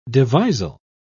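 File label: "devisal", British English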